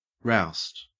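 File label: "roust", Australian English; pronunciations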